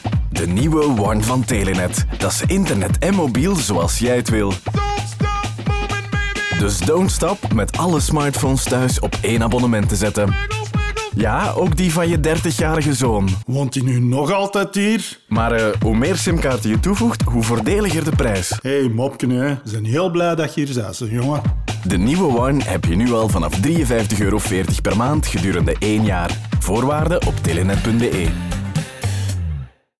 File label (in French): radio; tbwa; aanstekelijk voor telenet